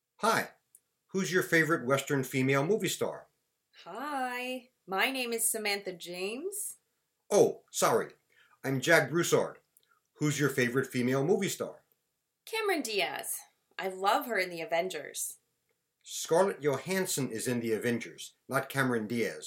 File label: Conversation